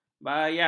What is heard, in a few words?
"bayam", ms